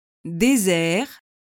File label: "desert", French